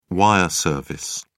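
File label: "wire service", British English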